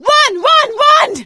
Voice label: yell run; Left 4 Dead 2; producer

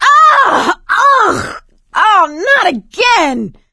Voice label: boomer reaction, survivor voice; Left 4 Dead 2; producer

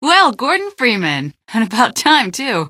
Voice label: about time, female; npc; Garry's Mod; hl2

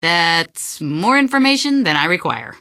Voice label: answer, female; npc; Garry's Mod; hl2

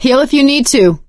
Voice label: suggest health, survivor voice; Left 4 Dead 2; producer

